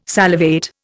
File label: "salivate", Indian